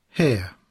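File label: "hair", English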